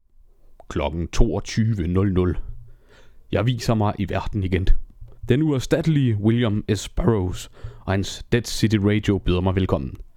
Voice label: dull microphone no temperature; temperature tone control